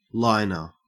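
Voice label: "liner", Australian English; pronunciations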